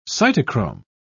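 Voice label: "cytochrome", British English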